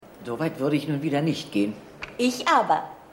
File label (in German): Frau; AusgerechnetAlaska